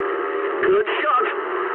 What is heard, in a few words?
eng player killed enemy mood med, speech eng